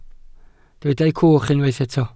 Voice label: "cwch", Welsh